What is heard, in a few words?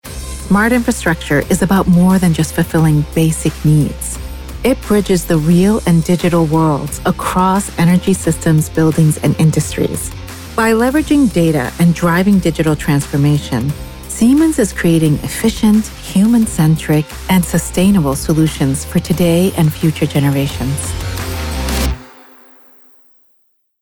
spot Authoratative; Siemens